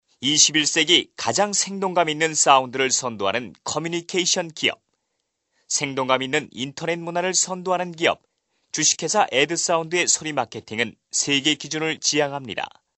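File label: Korean, male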